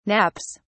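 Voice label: "naps", British English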